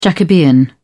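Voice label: "jacobean", British English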